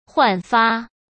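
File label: "焕发", Mandarin Chinese; chinese-flashcards; hsk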